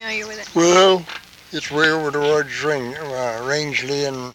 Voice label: Oral history with 88 year old white male Fruita Colorado, american english dialect recordings